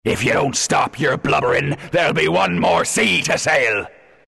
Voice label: announcer losing blubberin, pirate; dlc workshop; capn

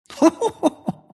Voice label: monkey laugh; dota; monkey king

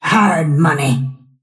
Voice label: lasthit pain; snapfire

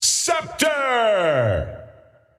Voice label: scepter announce in game; dota

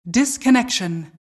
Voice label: announcer alert disconnection

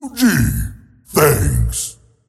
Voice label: tiny thanks; dota

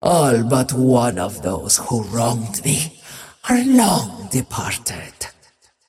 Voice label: monologue; dota; muerta

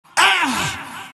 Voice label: monkey crown pain; dota; monkey king

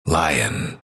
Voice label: announcer pick lion; dlc bastion

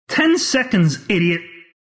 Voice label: announcer count pick; announcer dlc

